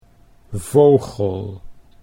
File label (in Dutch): "vogel", Dutch; 'bird'